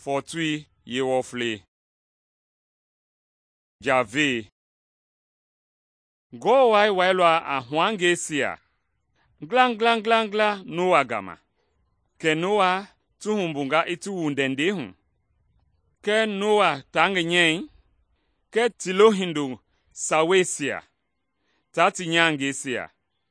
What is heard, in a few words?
mende bsl nt drama; good news mende kpa